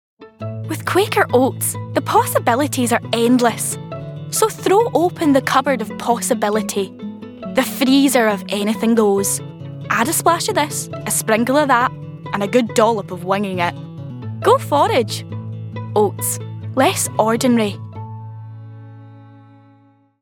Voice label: Scottish Commercial